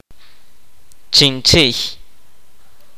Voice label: kaqchikel; rattle